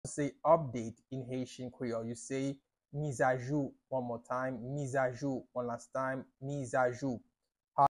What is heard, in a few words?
"Mizajou" Haitian Creole pronunciation by a native Haitian Creole teacher; How to say Update in Haitian Creole